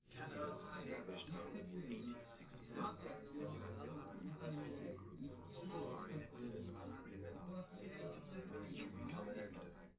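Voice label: male4 live recording 250ms 5cm, underdetermined; SiSEC11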